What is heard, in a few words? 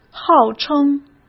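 "号称", Mandarin Chinese; hsk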